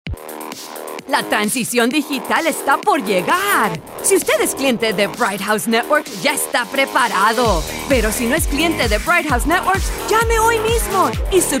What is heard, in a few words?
Hard Sell